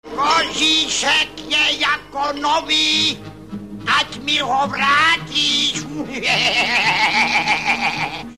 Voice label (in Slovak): sms hlásky cz